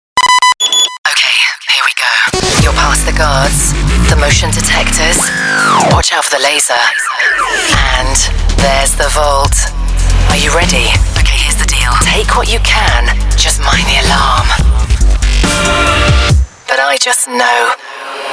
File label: Promo Radio; Beat the Bank; East Coast Radio South Africa